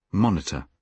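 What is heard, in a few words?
"monitor", British English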